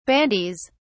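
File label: "bandies", British English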